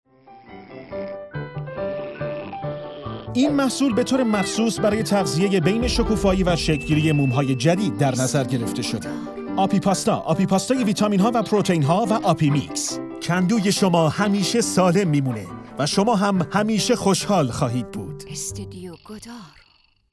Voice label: commercial